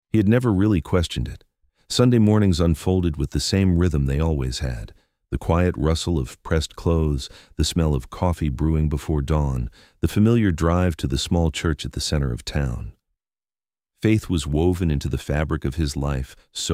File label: narration